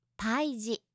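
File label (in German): "paiji", japanische Mädchennamen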